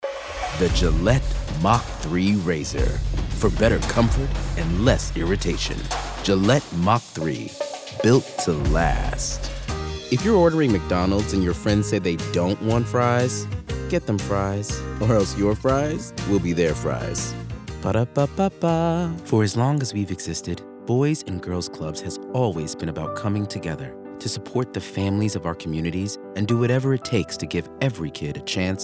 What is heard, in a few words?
commercial vo